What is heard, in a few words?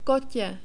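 "kote" Czech, male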